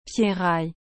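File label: "pierraille", French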